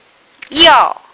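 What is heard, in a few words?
"yao4", Mandarin Chinese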